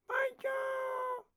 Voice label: Pig Chef, Voices